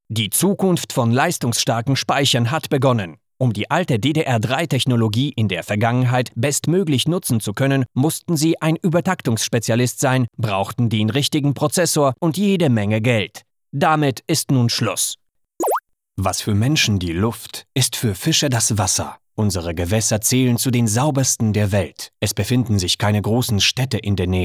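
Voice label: German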